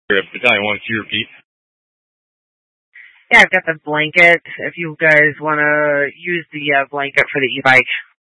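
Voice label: Incident Tactical 1, Scanner audio recording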